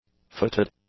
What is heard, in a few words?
"footed", english; adjectives